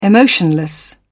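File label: "emotionless", British English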